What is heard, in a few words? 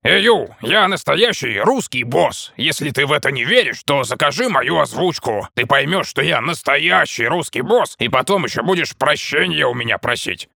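big Russian boss voice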